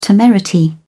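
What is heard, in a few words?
"temerity", British English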